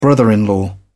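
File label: "brother in law", British English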